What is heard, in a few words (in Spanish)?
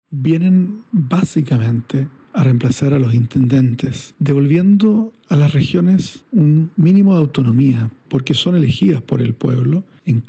cuña